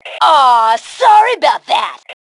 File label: Taunt Aww, Female